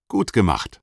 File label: positive feedback, german; aronwk collection; ndaudio